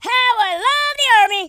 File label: incoming, Drill Sergeant; speech